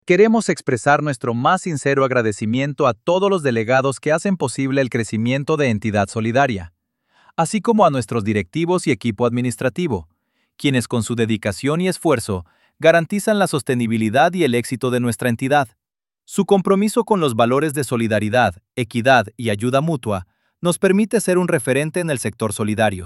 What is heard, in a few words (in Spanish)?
masculino